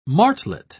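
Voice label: "martlet", British English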